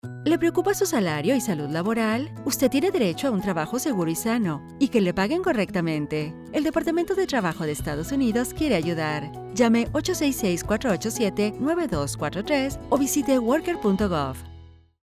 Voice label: PSA Farm Worker Health Spanish; pandemic